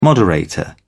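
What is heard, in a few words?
"moderator", British English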